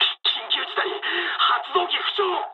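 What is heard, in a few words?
Japanese damaged engine mood med; War Thunder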